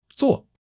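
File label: "zuo4", Mandarin Chinese; HSK1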